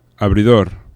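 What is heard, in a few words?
"abridor", Spanish; laboratori fonetica; aproximants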